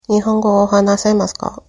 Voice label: do you speak, japanese